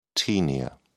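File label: "taenia", British English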